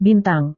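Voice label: "bintang", Indonesian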